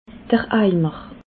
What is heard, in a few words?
"eimer", alsace